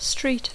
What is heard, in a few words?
"street", English; carrer